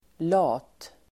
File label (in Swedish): "lat", Swedish